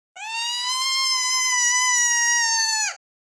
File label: scared, Nagging Wife